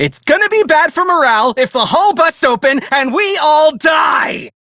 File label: Ship Low, Male; Dialog; Warframe; Railjack Crew; Perrin Sequence